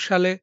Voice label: Male